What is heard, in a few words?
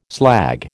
"slag", American